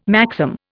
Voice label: "maxim", Indian